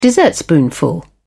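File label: "dessertspoonful", British English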